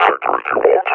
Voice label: retreat, combine officer vo; Half Life Alyx; Half Life Model Pack

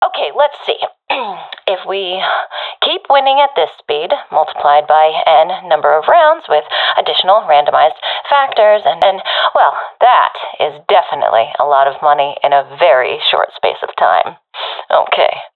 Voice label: round won quickly, professional fem; vo; Counter Strike Model Pack; CS:GO

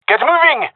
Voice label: request move, male; vo

